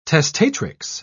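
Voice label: "testatrix", English, British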